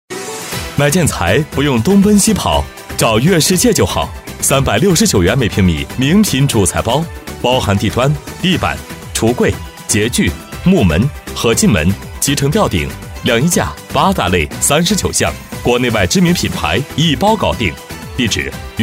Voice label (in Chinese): 【促销】; 悦饰界建材